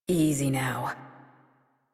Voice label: Horse Calm Adult; Warframe; Duviri

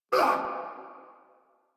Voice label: Pain; Warframe; Eidolon Skiff Grineer

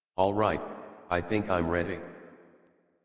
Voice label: Adult; Warframe; Duviri Paradox Quest

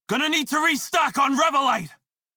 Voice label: Crew Need Revolite Male en; Dialog; Warframe; Railjack Crew; Red Veil

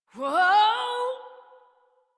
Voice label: Horse Stop Adult; Warframe; Duviri

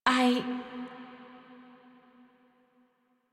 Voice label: Operator Voice; Warframe; Apostasy Teaser; Chamber; en

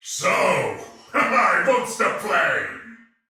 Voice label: Sight lost shout, male soldier; Dialog; Barks; Battle; Sinecure

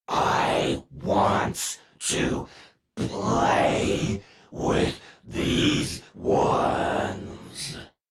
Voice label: Death Squad Taunt; Leekter; Warframe; en

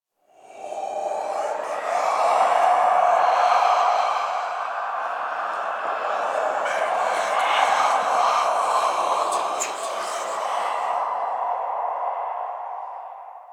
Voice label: Whisper; Warframe; Ambience; Entrati

